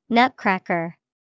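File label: nutcracker, English vocabulary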